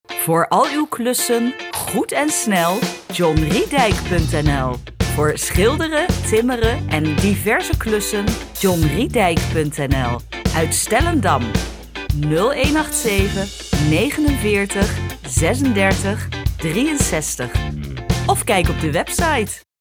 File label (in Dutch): Radio Reclame